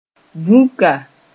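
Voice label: "vũka", Mossi; a heap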